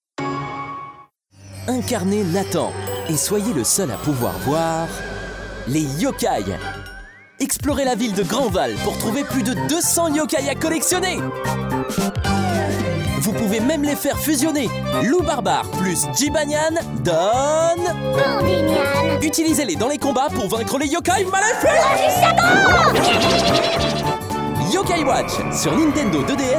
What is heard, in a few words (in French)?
spot tv; nintendo 3ds yokai 2 fusion